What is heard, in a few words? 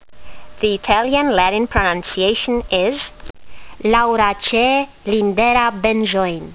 latin; Lindera benzoin